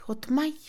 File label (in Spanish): Mixe, Mujer; problema; INALI